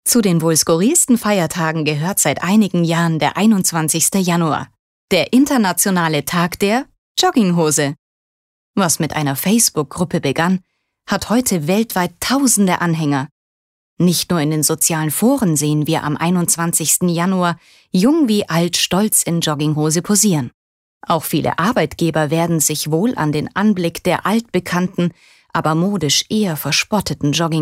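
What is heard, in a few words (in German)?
Kommentar Freundlich; Feiertag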